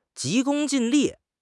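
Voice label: "急功近利", Mandarin Chinese; HSK6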